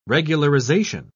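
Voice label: "regularization", British English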